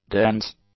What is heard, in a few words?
"dense", english; adjectives